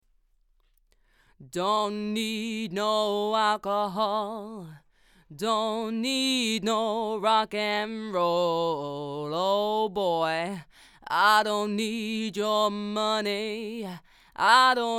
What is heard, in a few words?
Vox Shoot Rode NT1A Card